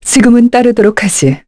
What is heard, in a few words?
get, kr, voices; heroes; Tanya; kings raid